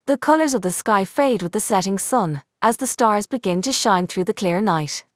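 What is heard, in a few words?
Irish English; iOS 26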